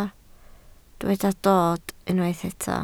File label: "dod", Welsh